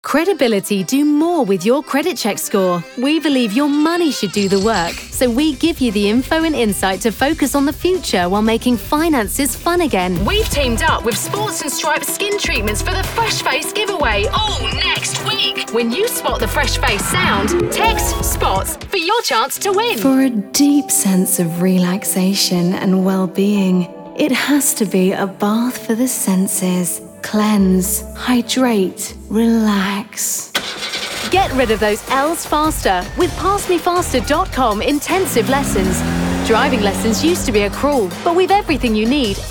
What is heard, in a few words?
Commercials